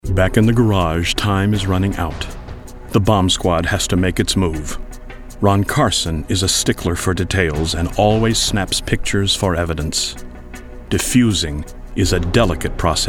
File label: Narrations